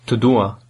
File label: i love you, albanian